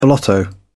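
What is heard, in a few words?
"blotto", British English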